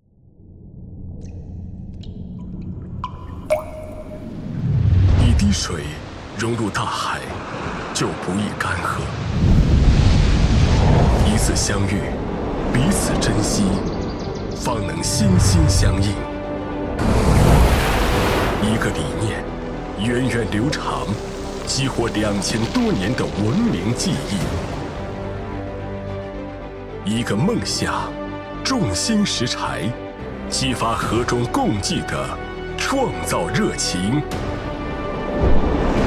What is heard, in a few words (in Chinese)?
男, 国家主题宣传片; 一带一路十周年; 幸福之路